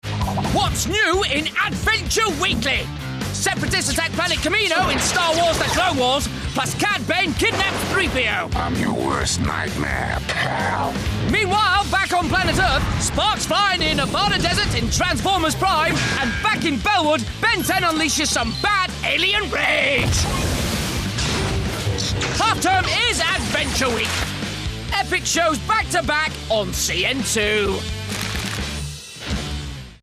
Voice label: UPBEAT KID FRIENDLY

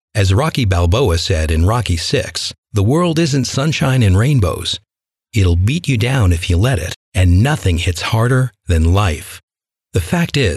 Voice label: Audiobook Sample Self Hypnosis

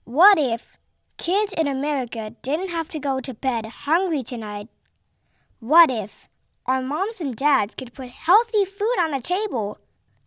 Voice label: Ads Promo

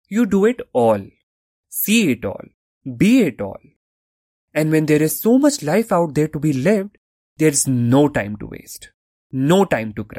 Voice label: Digital Spots; English (British)

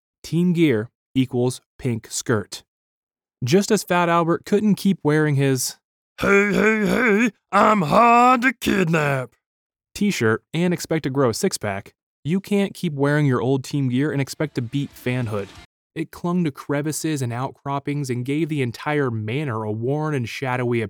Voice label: Audiobook

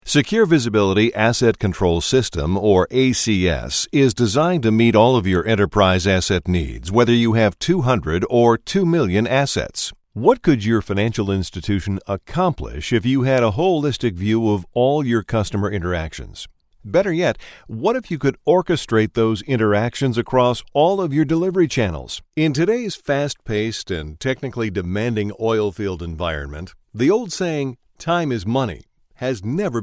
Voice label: Industrial Narration

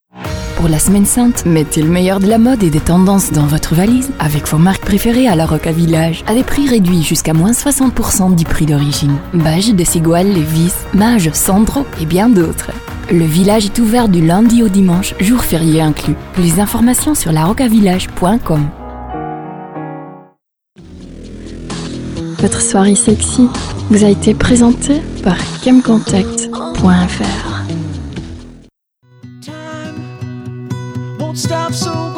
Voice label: Voice Demo FRENCH

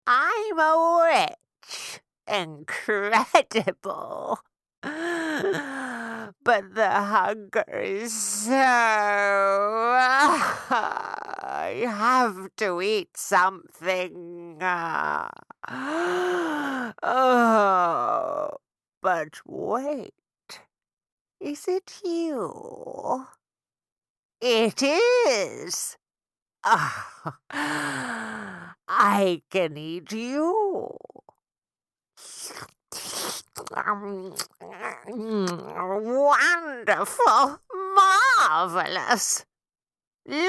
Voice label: Witch Character VO

